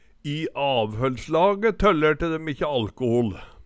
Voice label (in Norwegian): tøllere, Numedalsmål